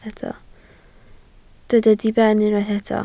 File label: "diben", Welsh